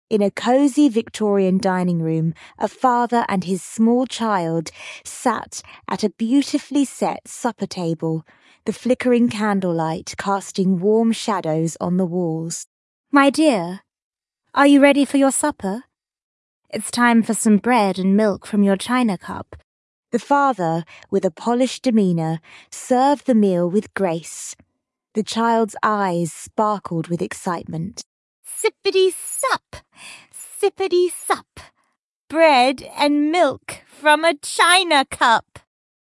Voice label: fem brit story; Sippity Sippity Sup